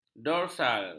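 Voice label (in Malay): "dorsal", Malay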